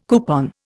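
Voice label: "coupon", Indian English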